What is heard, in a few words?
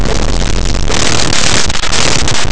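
"alguacil", Spanish; laboratori fonetica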